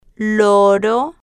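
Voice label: "loro", spanish, slow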